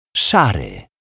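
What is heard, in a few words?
"szary", Polish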